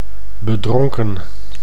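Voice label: "bedronken", Dutch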